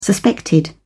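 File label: "suspected", British English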